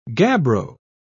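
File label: "gabbro", British English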